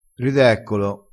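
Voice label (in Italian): "rideccolo", dialettale, crespinese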